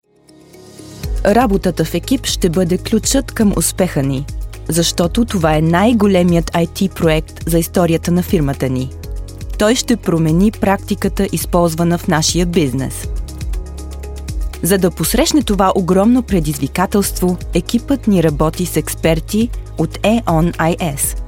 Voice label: bulgarian female corporate